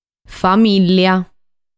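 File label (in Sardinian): "Famìllia", Sardinian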